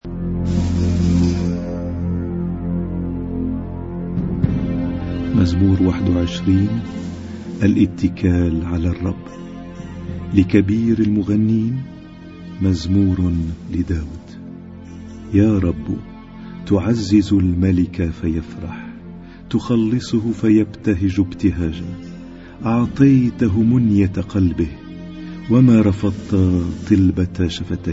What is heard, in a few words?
Arabic Psalm Zaboor